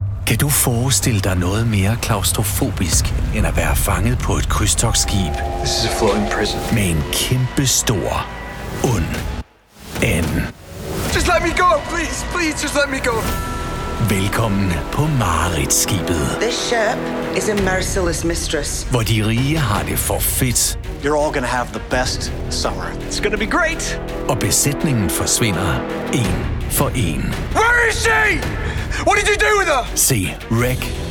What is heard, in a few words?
danish movie trailers